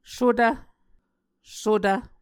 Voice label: "sutv", Muscogee

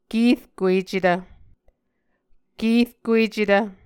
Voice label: "kerkuecetv", Muscogee

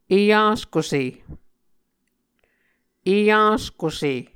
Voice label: "eyaskuse", muscogee; Mvskoke Words with Nasalized Vowels

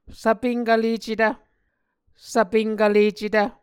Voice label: "svpenkvlecetv", Muscogee

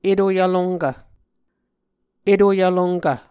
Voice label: "eto-yvlonkv", muscogee

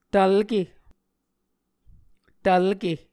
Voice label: "tvlke", Muscogee

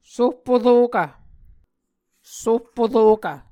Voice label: "sohporokv", Muscogee

